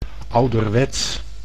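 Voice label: "ouderwets", Dutch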